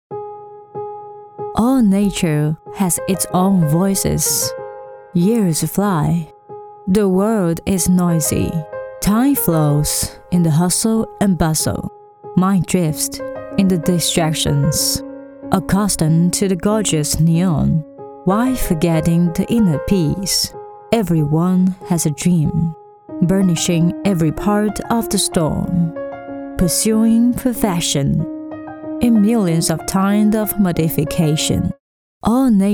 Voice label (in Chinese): 女 英语 沉静舒缓